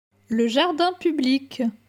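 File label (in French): "Le jardin public", French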